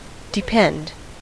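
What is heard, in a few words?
"depend", American English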